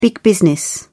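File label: "big business", English, British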